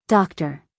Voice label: "doctor", US English, female

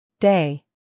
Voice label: "day", English, US, female; 1000 hours

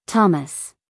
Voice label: "thomas", us female

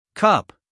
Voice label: "cup", US English, male; everyone-can-use-english

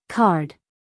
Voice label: "card", us female

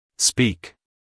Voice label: "speak", us male

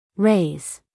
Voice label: "raise", US female; 1000 hours

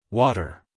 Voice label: "water", us male; everyone-can-use-english

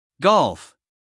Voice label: "Golf", us guy; american english

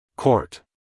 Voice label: "court", us male

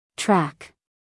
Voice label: "track", us female; 1000 hours

